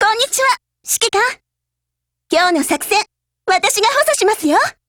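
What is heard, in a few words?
HELLO, Japanese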